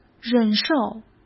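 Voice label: "忍受", Mandarin Chinese; hsk; chinese-flashcards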